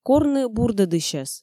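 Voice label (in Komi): "корны бурдӧдысьӧс", Komi, female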